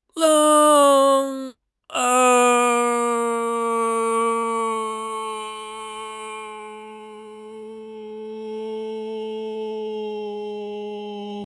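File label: "liang4", Mandarin Chinese; HSK4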